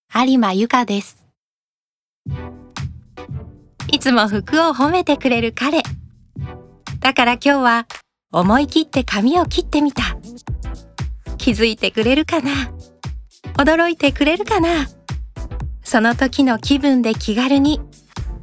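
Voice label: commercial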